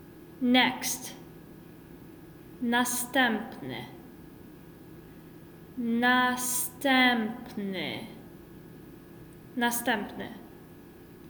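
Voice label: "Next", Polish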